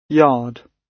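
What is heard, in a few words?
"yard", British English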